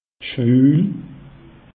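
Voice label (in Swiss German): "schwuul", Alsatian; meteo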